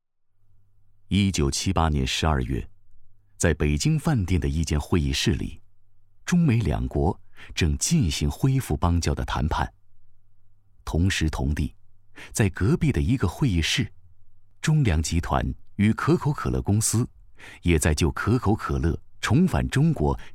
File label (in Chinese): 男 纪录片; 中粮纪录片